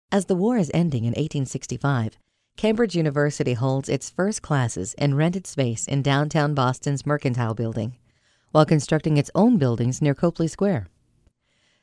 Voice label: documentary narration; cambridge university